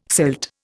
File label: "silt", Indian